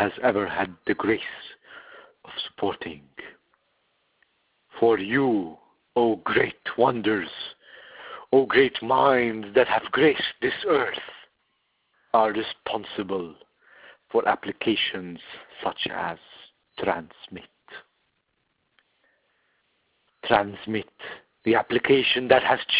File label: Panic Voicemail